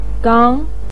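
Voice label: "gang", Mandarin Chinese, second tone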